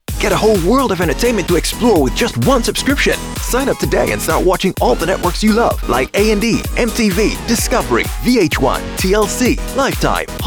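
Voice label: Upbeat Quick voice over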